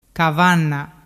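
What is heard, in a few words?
"cavanna", Vernazzese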